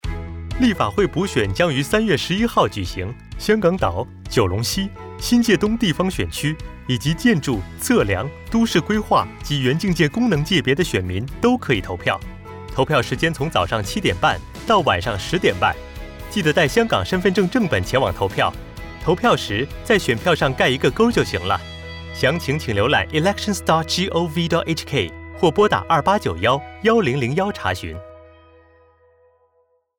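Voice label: Mandarin; Voter Turnout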